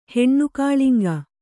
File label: "ಹೆಣ್ಣುಕಾಳಿಂಗ", Kannada